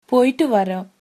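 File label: goodbye, Tamil, female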